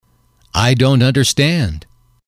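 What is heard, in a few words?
"I dont understand", English, male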